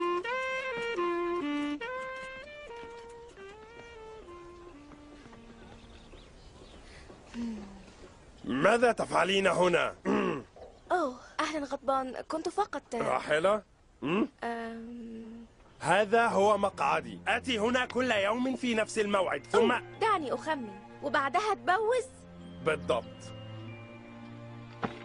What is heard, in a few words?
arabic animation